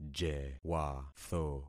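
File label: "jewatho", concatenated syllables; Affixing experiment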